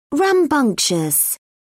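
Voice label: "rambunctious", British English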